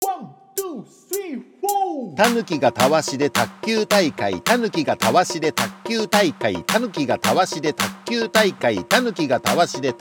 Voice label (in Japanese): 早口言葉リズム（中級編）